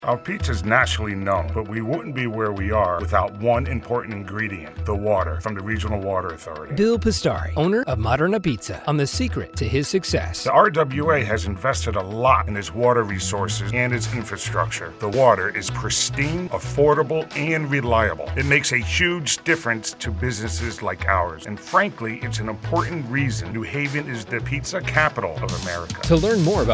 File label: radio ad; modern apizza